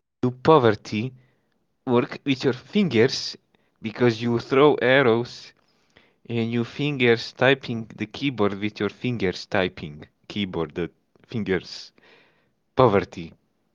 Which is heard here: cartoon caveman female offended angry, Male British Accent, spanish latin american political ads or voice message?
voice message